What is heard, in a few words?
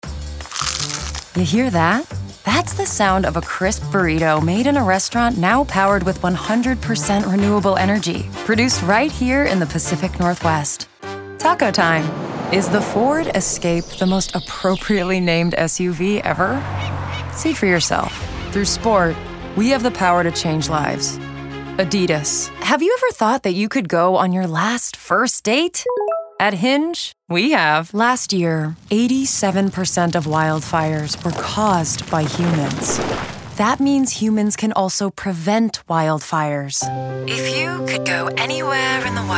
women commercial